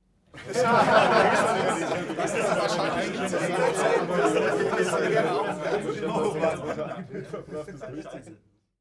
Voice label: talk happy short